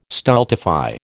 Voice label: "stultify", American

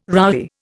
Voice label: "roughy", Indian

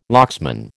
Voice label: "locksman", American

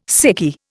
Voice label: "sickie", Indian

